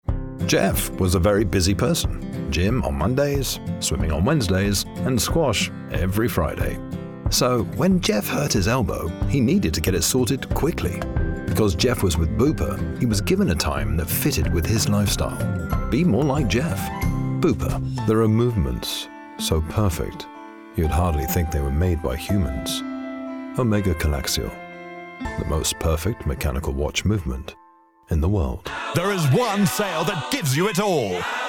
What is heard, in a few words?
commercial